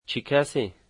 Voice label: "who", Dari

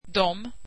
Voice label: they, swedish

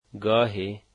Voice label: sometimes, dari

